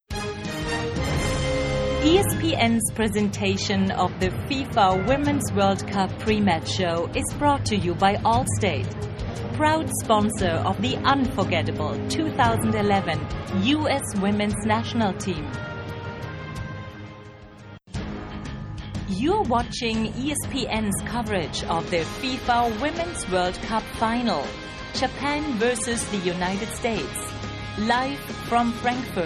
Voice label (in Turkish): Amerikan Ingilizcesi Kadin, Kadın Ses